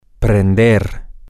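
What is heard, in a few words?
Spanish, to turn on, male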